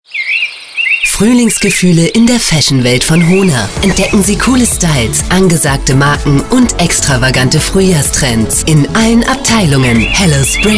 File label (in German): werbung